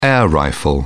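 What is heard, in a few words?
"air rifle", British English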